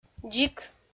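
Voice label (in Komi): "дзик", Komi, female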